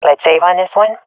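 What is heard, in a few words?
request eco, swat female; vo; agents; Source Model Packs; Counter Strike Model Pack; CS2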